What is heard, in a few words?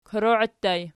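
"koroii" ergative plural, Archi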